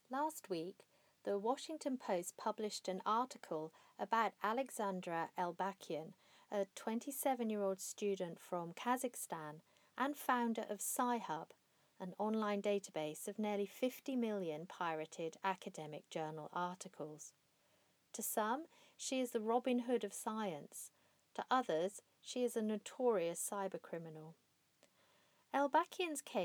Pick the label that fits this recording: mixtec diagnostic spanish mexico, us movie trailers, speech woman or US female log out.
speech woman